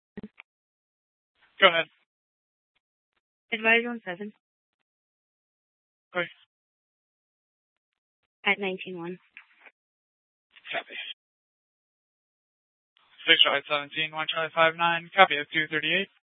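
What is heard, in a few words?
police dispatch radio, scanner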